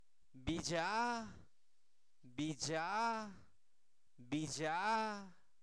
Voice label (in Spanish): brujo, Zapoteco, santo domingo albarradas; INALI; humano